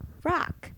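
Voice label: "rock", English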